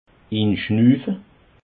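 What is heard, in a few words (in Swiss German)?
"inschnuufe", Alsatian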